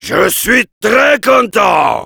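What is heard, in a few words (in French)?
positive vocalization, French; Heavy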